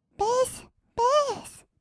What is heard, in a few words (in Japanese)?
ベース ベース, kawaii voice; 音ゲー